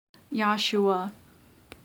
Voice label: "Yahshua", female voice